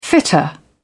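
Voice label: "fitter", British English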